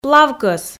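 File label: "плавкӧс", Komi, female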